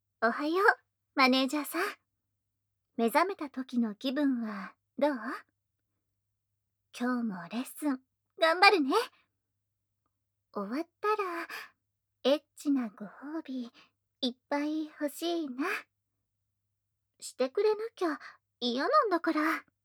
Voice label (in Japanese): 目覚ましボイス; アラームボイス; SEなし